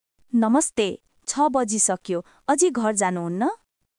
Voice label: Nepali; 日本の「お疲れ様です！」はネパール語でどう言う？職場で使える挨拶フレーズ集